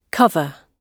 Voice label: "cover", gb

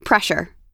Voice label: "pressure", US

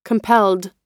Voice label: compelled, us

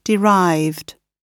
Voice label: "derived", gb

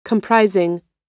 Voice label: "comprising", us

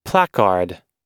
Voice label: "placard", US

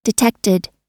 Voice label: "detected", us

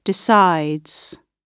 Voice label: "decides", gb

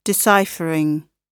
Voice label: "deciphering", gb

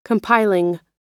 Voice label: "compiling", us

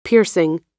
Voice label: "piercing", US

